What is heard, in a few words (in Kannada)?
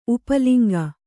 "ಉಪಲಿಂಗ", Kannada